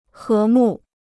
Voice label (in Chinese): "和睦", Chinese